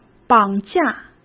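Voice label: "绑架", Mandarin Chinese; chinese-flashcards; hsk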